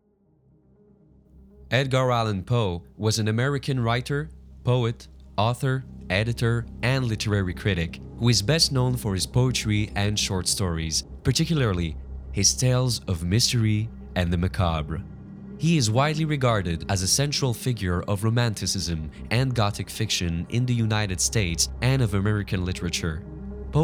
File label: Narration EN